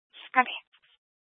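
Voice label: Police Dispatch, scanner audio recording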